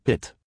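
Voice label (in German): "Hit", German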